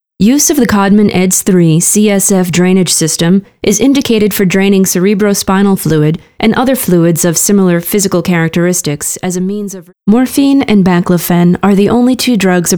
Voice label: vo technical montage